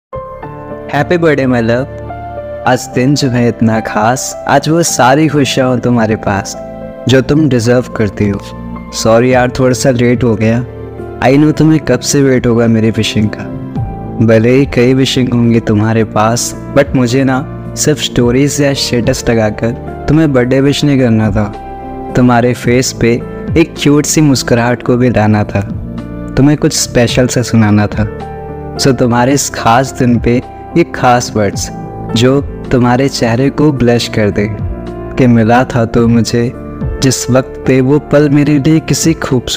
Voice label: Happy birthday Love Wishing Hindi poetry Love Ringtone